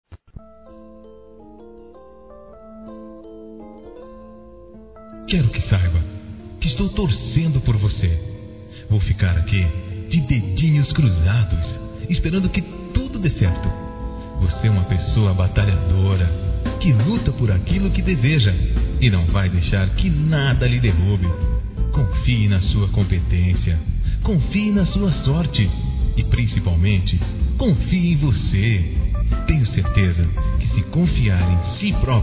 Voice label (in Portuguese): OTIMISMO P MULHERES M, Telemensagens; masc